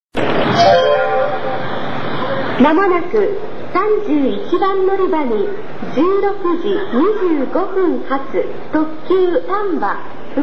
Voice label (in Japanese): 京都駅, 接近放送, 特急 たんば1号 福知山ゆき; ※この放送は1996年頃のもので、現在は使用されていません。